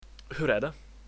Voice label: how are you, swedish